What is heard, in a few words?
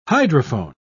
"hydrophone", pronounce